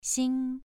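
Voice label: "xing" Mandarin Chinese, second tone, pronunciation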